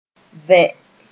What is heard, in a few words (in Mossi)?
"vẽ", moore